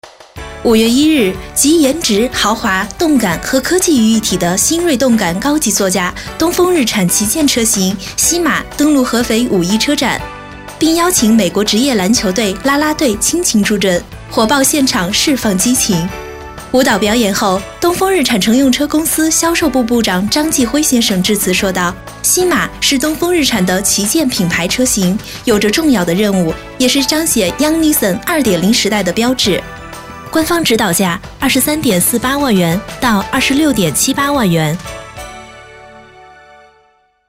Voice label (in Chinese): 女 资讯, 国语女声配音; 东风